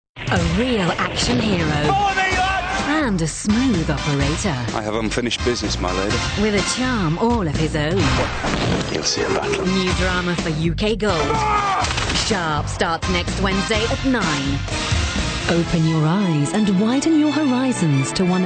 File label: female english multiple accents promo and continuity compilation